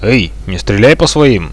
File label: friendly fire, Russian